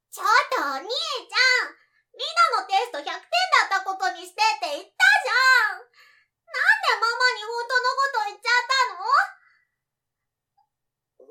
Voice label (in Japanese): Tiisana Onnanoko, voice sample